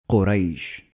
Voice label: "Quraysh", Arabic